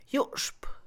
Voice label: mover, Mixe, Mujer; Movimiento; INALI

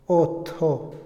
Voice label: nada, Otomí, hombre; INALI

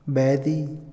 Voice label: perder, Otomí, hombre; Posesión; INALI

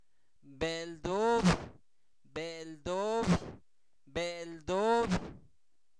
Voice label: gusano de maguey, Zapoteco, santo domingo albarradas; animales; INALI

